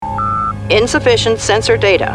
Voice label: computer voice, insufficient sensor data